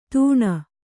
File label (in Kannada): "ತೂಣ", Kannada